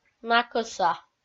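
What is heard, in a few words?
"накӧса", Komi, female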